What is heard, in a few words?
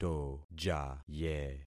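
"tojaye", concatenated syllables; Affixing experiment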